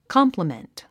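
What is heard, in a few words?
"compliment", English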